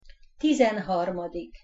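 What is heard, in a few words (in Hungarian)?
"tizenharmadik", Hungarian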